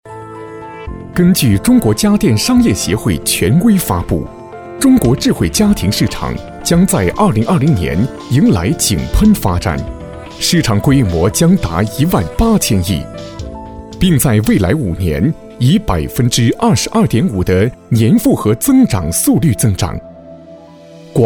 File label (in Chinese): 男产品专题智能家居浑厚